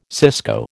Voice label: "cisco", American